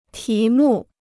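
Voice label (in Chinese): "题目" Chinese; tí mù; sujet; titre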